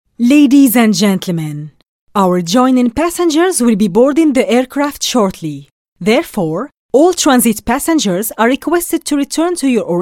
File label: Arabic Eng F, accent of the arab league